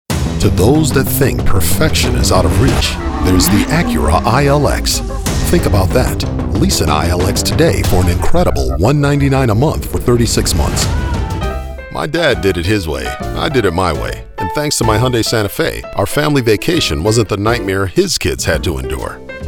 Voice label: Automotive, African American Voice Actor, commercials